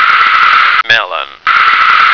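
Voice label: "melon", American English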